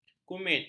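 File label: "kumit", Malay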